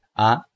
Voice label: Spanish A